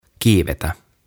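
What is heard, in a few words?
"Kiivetä", "Climb", Finnish, English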